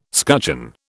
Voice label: "scutcheon", British